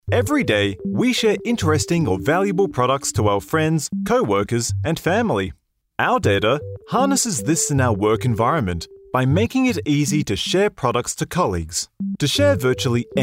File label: eLearning